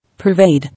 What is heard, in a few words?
"Pervade", English